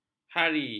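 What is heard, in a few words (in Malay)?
"hari", Malay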